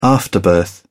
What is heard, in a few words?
"afterbirth", uk